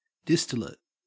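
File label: "distillate", Australian English; pronunciations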